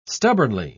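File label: "stubbornly", English, uk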